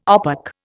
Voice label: "OPEC", Indian